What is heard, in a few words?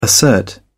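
"assert", British English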